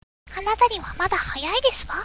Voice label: girl